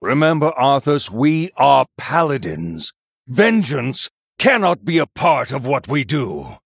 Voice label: Male Human; Uther

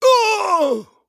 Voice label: Male Human Death

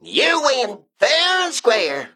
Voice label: Male Mech Emote Concede

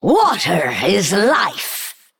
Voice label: Female Naga

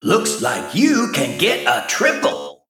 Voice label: Male Mech Triple

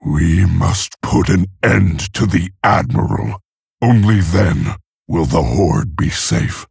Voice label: Male Orc

